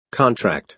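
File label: "contract", American English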